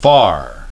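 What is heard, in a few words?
"far", english pronunciation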